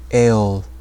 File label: "Eöl", Sindarin